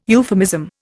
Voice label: "euphemism", Indian